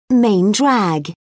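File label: "main drag", British English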